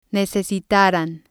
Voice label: "necesitaran", spanish